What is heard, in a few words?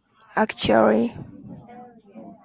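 "actually", Japanese, female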